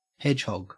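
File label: "hedgehog", Australian English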